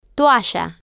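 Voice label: "toalla", Spanish, female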